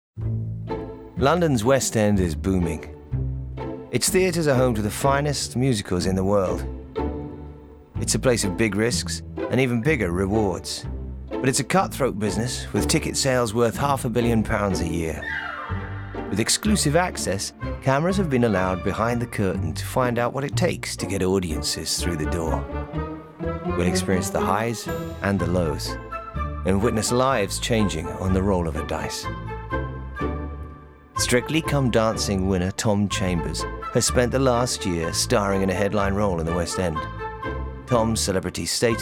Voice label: Narration

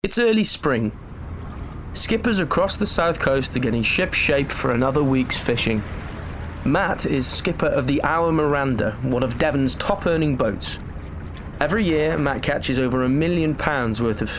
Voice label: narrative

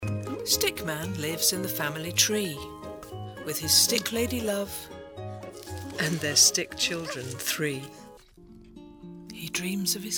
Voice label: Narrative; Stick Man

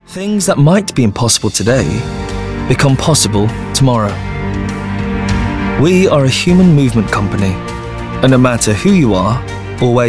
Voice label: Ad; TOYOTA COMMERCIAL

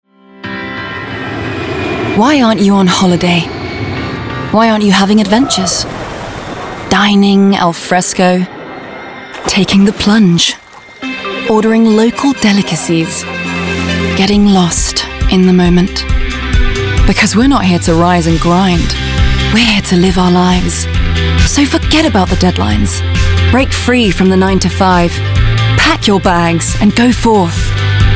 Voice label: Ad; Travel Repiblic; TRAVEL REPUBLIC COMMERCIAL

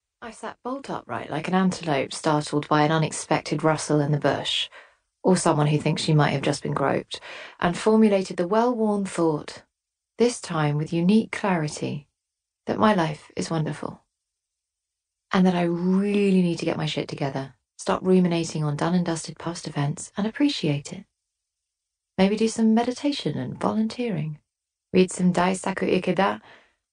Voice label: AUDIOBOOK; FAULT LINES

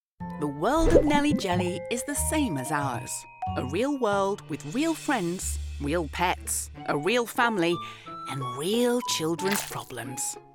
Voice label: narrator; Nelly Jelly

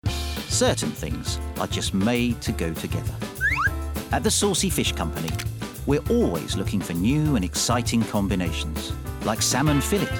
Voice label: Commercial reel

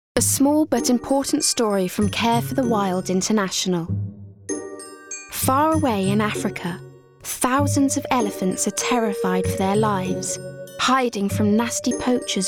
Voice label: Narr

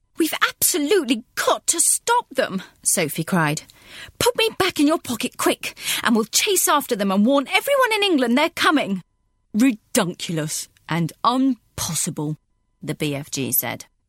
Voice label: Kids Narration; Bfg; Vocal Point

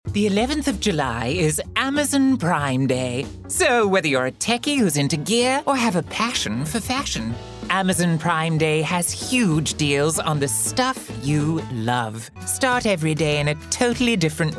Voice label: COMMERCIAL REEL

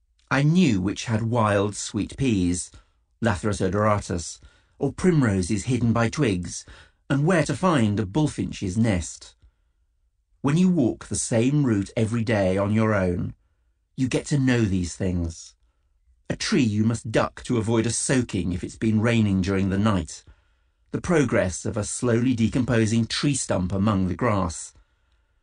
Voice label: AUDIOBOOK; THE CHRISTMAS CHRONICLES

